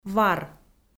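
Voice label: "вар", Komi, female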